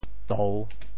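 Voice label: "tou3", Teochew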